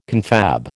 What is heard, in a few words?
"confab", American